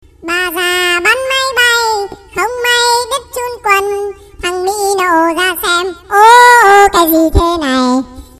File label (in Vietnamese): Nhac Chuong Baby Voice